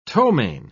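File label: "ptomain", British English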